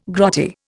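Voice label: "grotty", Indian English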